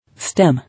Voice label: "Stem", English